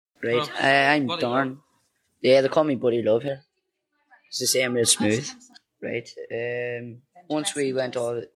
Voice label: Belfast Monologue Male 30s